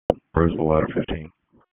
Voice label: FIRE OPS, p25